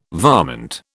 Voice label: "varmint", British